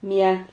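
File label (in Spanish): "miad", Spanish syllable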